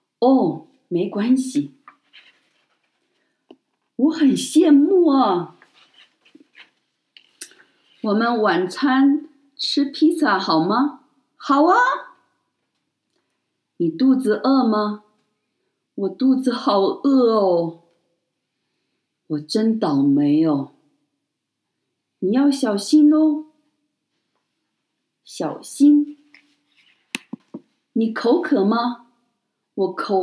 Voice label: Authentic Chinese Expression Sounds